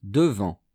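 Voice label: "devant", French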